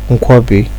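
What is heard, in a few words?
"nkwobi", Nigerian Pidgin, male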